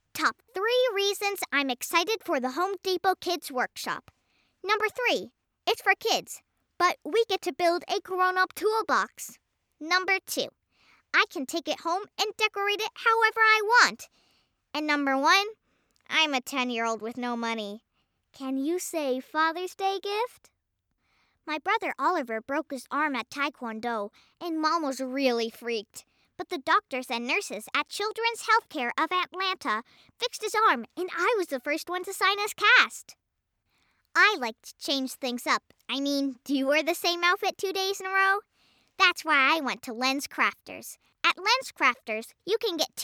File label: Commercial